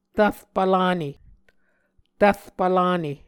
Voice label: "tvrpv lane", Muscogee

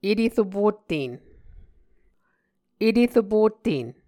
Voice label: "eteropotten", muscogee

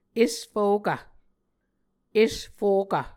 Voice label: "esfokv", Muscogee

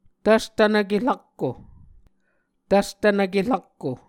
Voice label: "tvstvnvke rakko", Muscogee

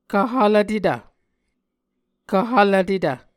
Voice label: "kv hvlvtetv", Muscogee

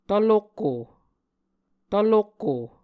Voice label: "tvlokko", Muscogee; A Dictionary of Creek/Muskogee; SIL International

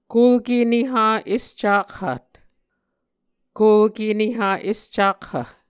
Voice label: "kulke neha escakhat", Muscogee

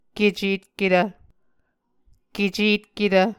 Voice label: "kecetketv", Muscogee

